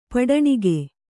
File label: "ಪಟ್ಟಣಿಗೆ", Kannada